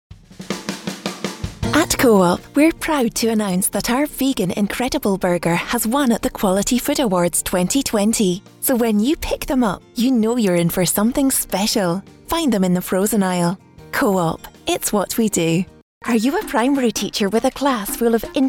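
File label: montage, Bright Subtly Husky Scottish Voice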